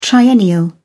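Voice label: "triennial", British English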